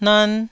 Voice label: "nan1", Taiwanese

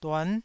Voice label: "duan9", taiwanese syllable

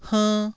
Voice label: "hernn1", taiwanese

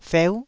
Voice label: "feu9", Taiwanese

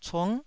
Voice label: "cung9", Taiwanese

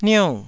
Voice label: "niou4", Taiwanese syllable

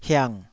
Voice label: "hiang3", Taiwanese syllable

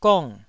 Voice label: "gong4", taiwanese syllable

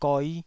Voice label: "goi5", Taiwanese syllable

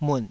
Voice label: "mut3", taiwanese

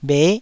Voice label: "bhe9", taiwanese

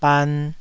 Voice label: "ban2", taiwanese syllables; 台語音節發音檔案

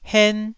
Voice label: "hen1", taiwanese

